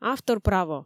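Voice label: "автор право", Komi, female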